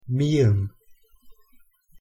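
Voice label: "ᄆ", Korean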